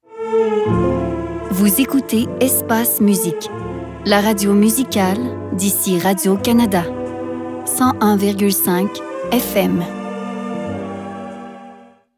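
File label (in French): démo de voix pub; espace musique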